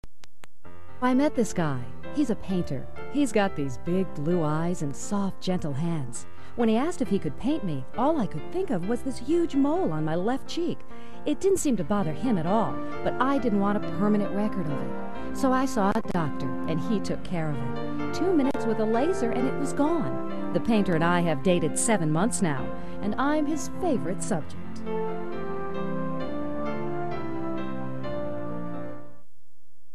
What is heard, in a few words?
COMMERCIAL